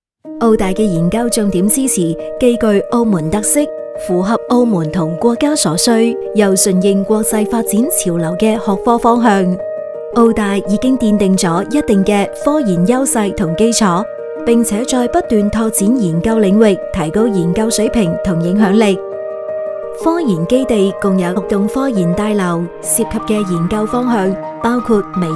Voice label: cantonese; 科研基地